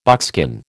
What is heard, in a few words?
"buckskin", American